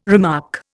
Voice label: "remark", Indian